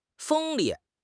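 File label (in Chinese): "锋利", Chinese; HSK6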